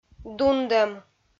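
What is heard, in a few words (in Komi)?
"дундӧм", Komi, female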